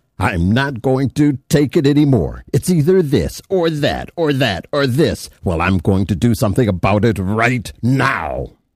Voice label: angry, broadcast voice